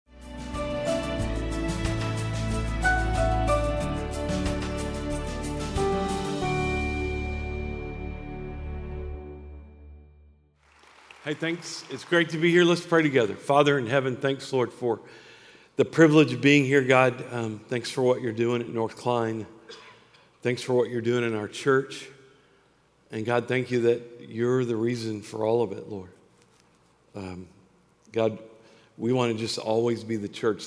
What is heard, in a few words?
Sermon English